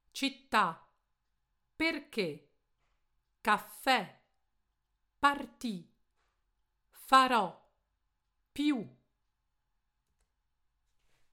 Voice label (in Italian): accento tronco